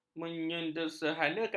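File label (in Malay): "menyederhanakan", Malay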